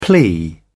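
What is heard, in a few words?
"plea", British English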